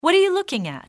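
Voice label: looking at, english female; XBOX; civ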